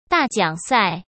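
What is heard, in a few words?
"大奖赛", Mandarin Chinese; chinese flashcards; hsk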